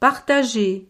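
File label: "partager", French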